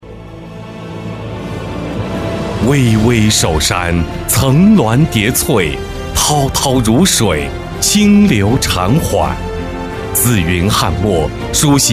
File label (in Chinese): 男 专题; 文昌小学